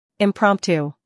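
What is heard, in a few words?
"humor", British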